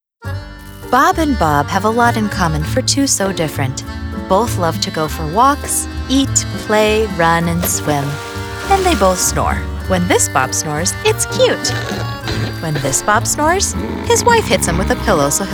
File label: Explainer